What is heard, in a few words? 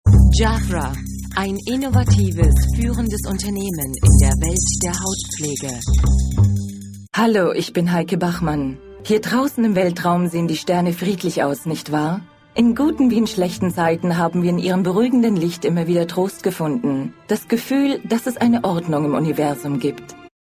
german voiceover locutora alemana